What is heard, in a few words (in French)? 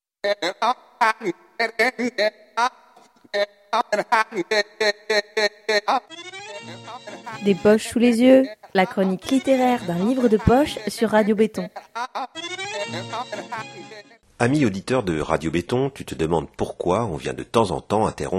voix off